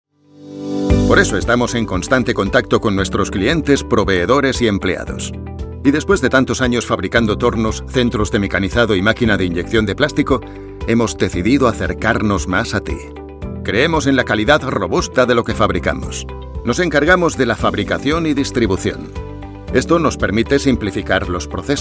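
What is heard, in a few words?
Spanish, Corporate; GoLocalise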